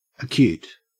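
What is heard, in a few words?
"acute", Australian English; pronunciations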